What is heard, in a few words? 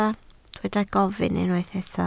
"gofyn", Welsh